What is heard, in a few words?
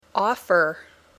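"offer", English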